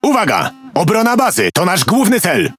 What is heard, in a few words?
Speech Quick Commands Ally Base, PL; World Of Warships